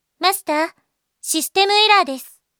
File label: syserr, Japan Anime Girls; Windows; Bing; Nanami